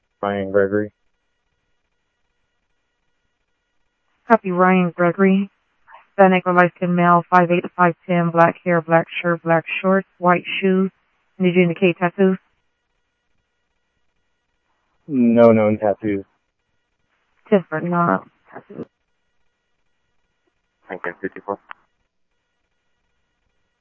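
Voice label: Sheriff West County, scanner audio trunk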